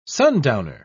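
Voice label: "sundowner", English, uk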